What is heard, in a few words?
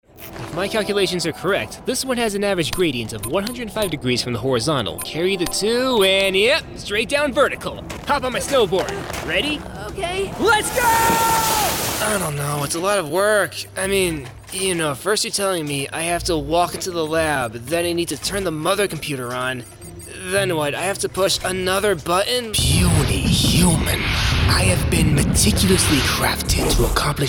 ANIMATION